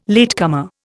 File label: "latecomer", Indian